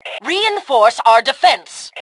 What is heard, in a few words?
Defend Reinforce, Female; T2 Female 02